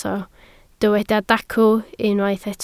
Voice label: "dacw", Welsh